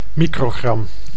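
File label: "microgram", Dutch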